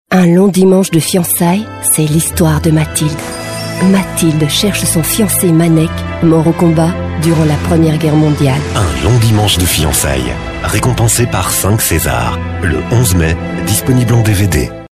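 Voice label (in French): Belgian French, female, commercial; voice demos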